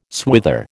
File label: "swither", American